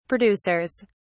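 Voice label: "producers", English, British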